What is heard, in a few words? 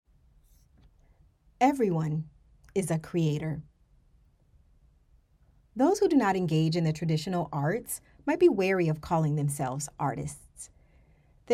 Audiobook Narration